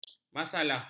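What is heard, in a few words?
"masalah", Malay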